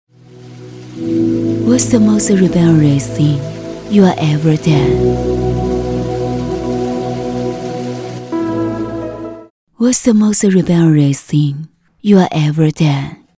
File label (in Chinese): 品牌广告英文